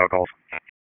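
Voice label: Police Spec Ops Tac, radio; wake; p25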